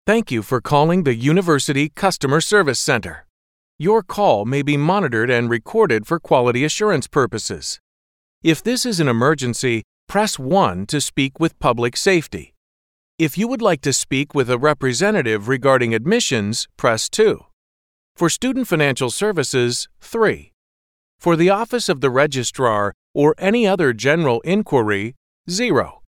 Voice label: us english ivr